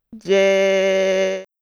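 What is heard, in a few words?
"ぜ", Japanese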